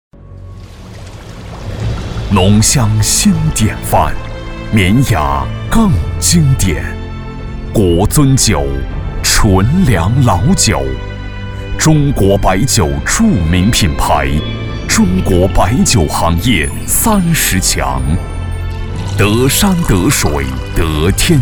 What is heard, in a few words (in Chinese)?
男 酒类广告 大气品质; 国尊酒